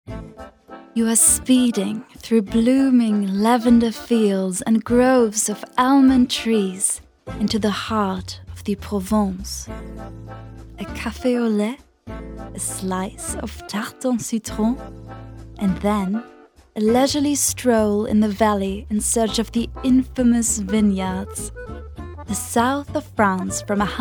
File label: female German English with French accent commercial; eurostar